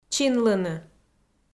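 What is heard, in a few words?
"чинлыны", Komi-Zyrian, female; kpv audio dict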